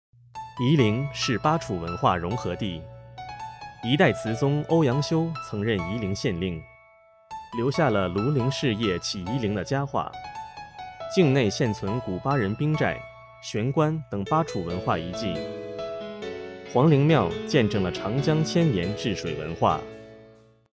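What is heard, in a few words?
narration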